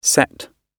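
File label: "set", British English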